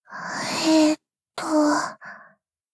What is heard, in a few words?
sad